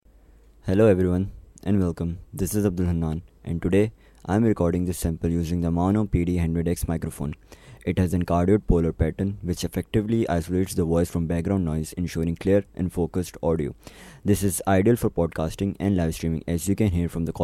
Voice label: Maono PD100X Recording Sample